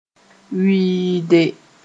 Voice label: "wɩɩde", moore

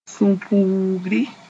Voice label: "sũ-puugri", Moore; wrath

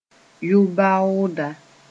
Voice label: "yʋ-baooda", moore

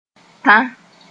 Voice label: "tã", moore

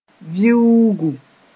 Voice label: "viuugu", moore

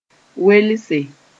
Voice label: "welse", moore

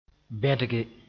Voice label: "bẽdge", moore

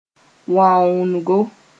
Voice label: "wãoongo", moore

